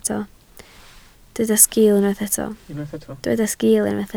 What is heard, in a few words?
"sgil", Welsh